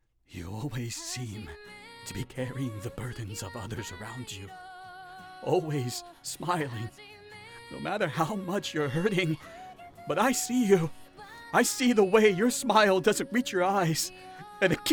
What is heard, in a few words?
Anime romance